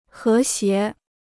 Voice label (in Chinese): "和谐", Chinese